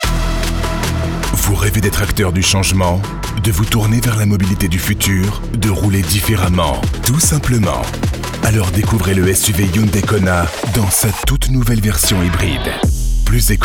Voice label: french automotive